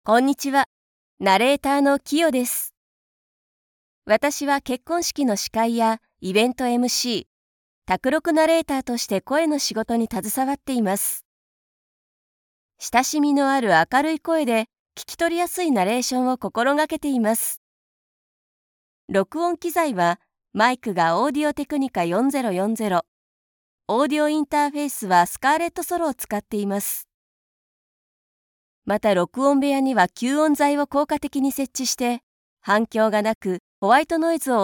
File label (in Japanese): 自己紹介PR, narrator